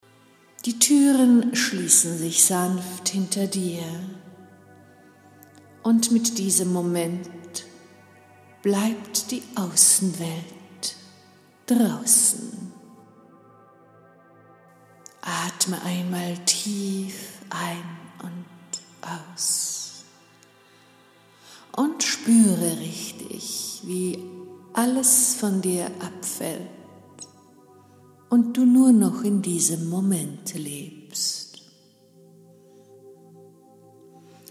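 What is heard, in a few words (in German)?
Induktion Hypno Lift Hörprobe; Der hypnotische Lift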